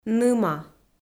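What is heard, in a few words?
"ныма", Komi, female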